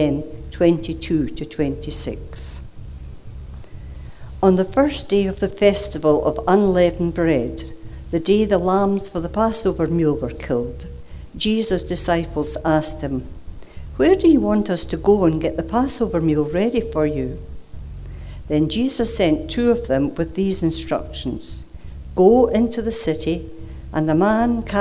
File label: reading; Mark 14: 12-16, 22-25